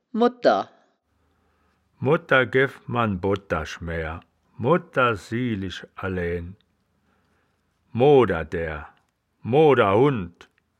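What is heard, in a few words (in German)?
"modter", wittlicher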